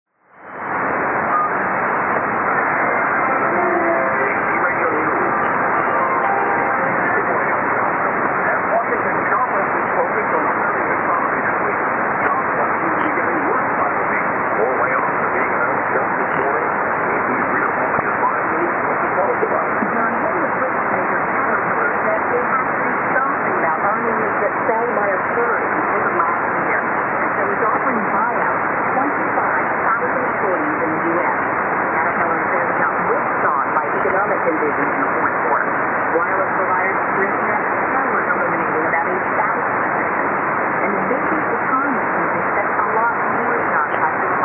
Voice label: 4319kHz; AFN-Diego Garcia